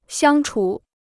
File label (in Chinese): "相处", Chinese